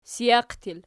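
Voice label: "sijaq" plural, Archi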